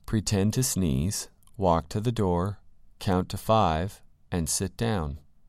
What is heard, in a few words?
"pretend to sneeze" "walk to"